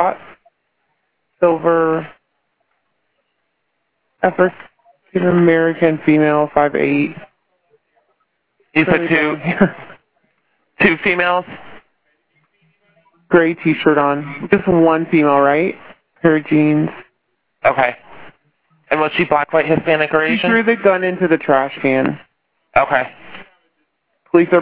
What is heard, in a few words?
911 call; west clt triple shooting 911 call 2; one dead after triple shooting in west charlotte